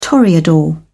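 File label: "toreador", British English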